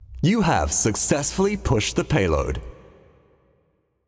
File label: Announcer; Vox; Success Payload Push; Paladins